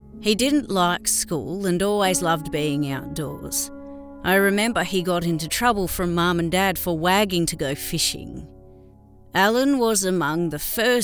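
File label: Elderly Australian; Beryl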